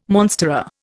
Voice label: "monstera", Indian